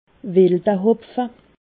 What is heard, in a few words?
"wilahopfe", alsace